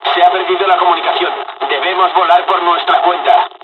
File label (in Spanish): dialog, dialogs spanish; midway; War Thunder